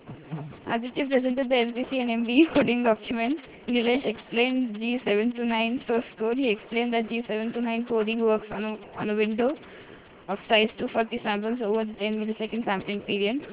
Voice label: Voice Comp Decomp